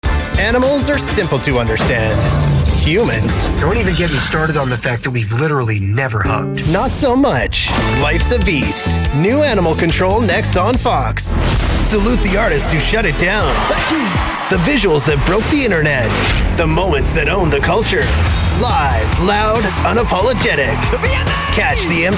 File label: Promos